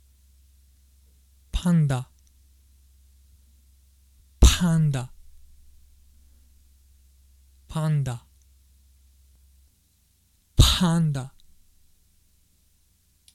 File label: panda japanese, english pronunciation